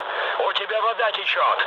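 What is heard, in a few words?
Russian damaged water mood low, speech; War Thunder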